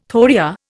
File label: "thoria", Indian